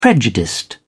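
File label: "prejudiced", English, British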